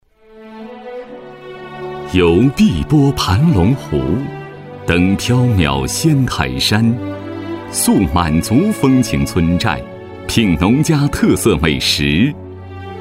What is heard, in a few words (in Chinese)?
专题片